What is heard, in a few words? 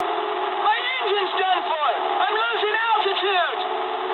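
English damaged engine mood medium; speech; War Thunder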